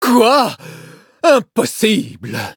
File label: defeat, fr; cookierun